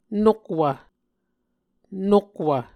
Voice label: "nokwv", Muscogee